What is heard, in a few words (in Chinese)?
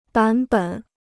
"版本", Chinese